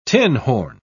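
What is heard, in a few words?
"tinhorn", English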